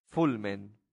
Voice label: "fulmen", latin; santillana